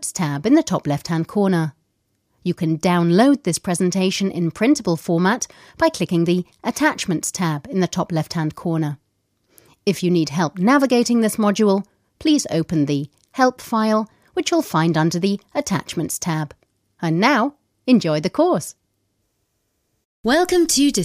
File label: VOICE ARTIST e-learning